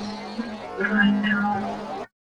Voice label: not right now, SLOWED; VOICE SLOWED AND FILTERED; VOICE ISOLATED

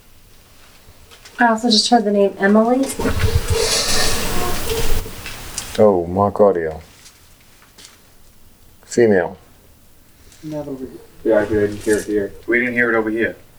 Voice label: Basement - Female Voice - bring me my gift

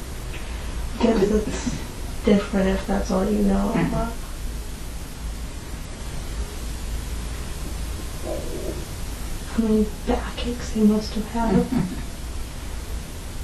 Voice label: Dining Room gruff male

